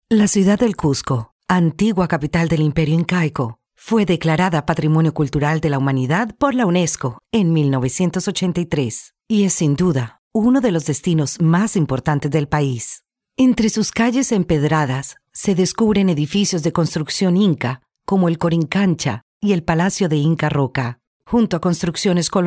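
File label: Spanish Latin America female narrative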